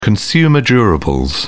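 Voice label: "consumer durables", British English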